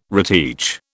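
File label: "reteach", British English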